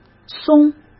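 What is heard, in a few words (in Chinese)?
"松", Mandarin Chinese; hsk; chinese-flashcards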